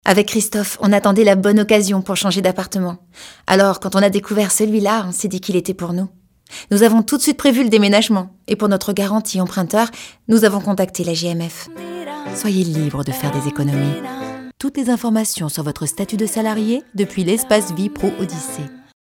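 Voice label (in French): narration naturellle signature posee, voix off féminine; GMF Odyssee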